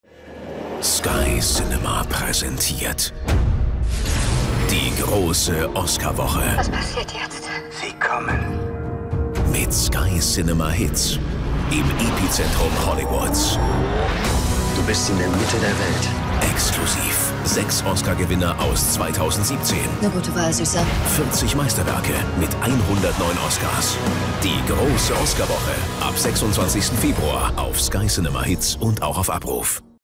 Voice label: Trailer Station Voice; SKY Oscar Woche